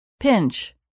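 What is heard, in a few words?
"pinch", English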